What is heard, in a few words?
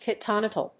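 "cottontail", English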